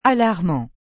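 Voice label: "alarmant", French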